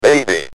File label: "Baby", UK Speech